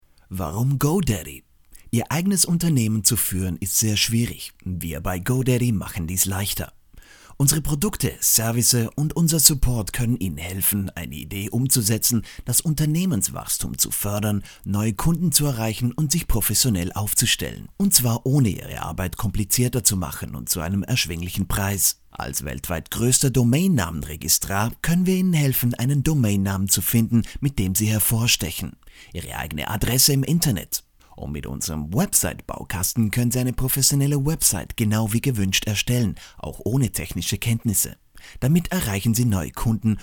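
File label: German Commercial; GoLocalise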